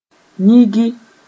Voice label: "yĩgi", moore

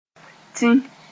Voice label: "tĩ", Moore; vomit

